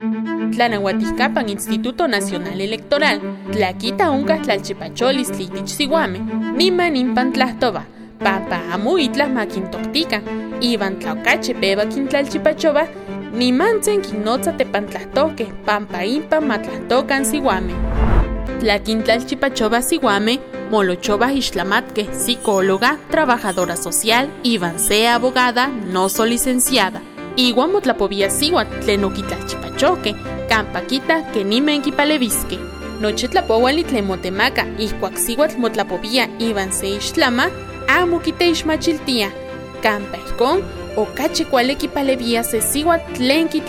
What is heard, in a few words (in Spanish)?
NAHUATL